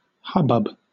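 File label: "hubbub", British English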